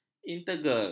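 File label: "integer", Malay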